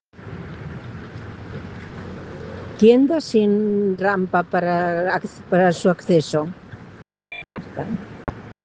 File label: Voice message